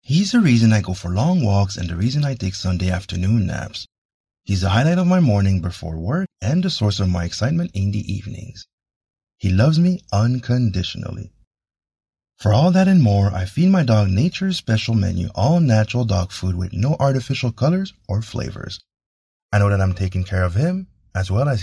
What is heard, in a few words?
DOG FOOD eng